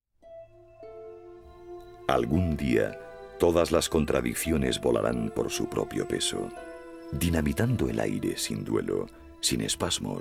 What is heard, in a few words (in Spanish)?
locutor español